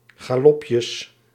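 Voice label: "galopjes", Dutch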